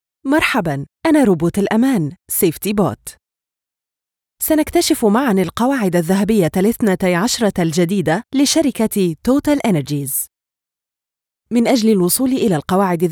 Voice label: MSA Narration Elearning

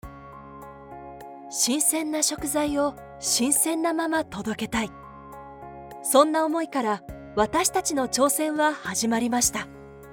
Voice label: Corporate

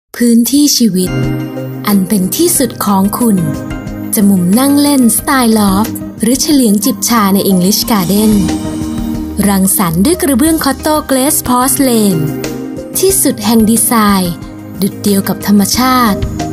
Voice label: Spot; Cotto; Barbie

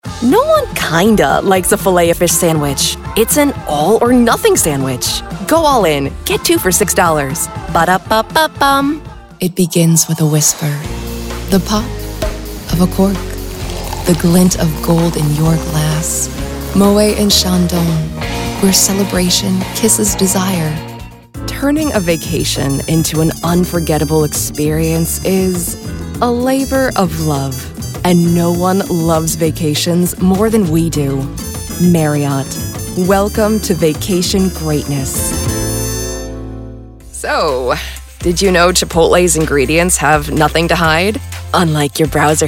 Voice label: US Commercial

